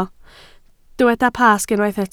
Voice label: "Pasg", Welsh